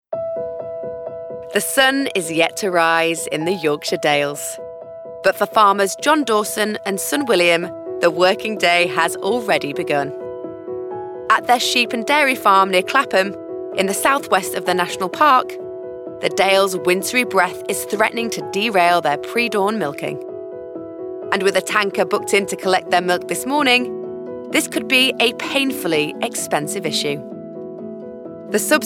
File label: Documentary